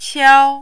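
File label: "qiao", Mandarin, first tone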